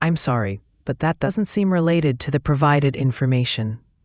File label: question english